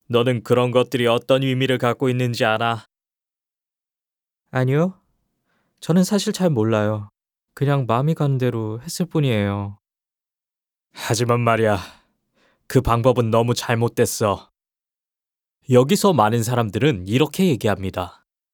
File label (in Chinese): 韩语男, 模仿, 一人饰两角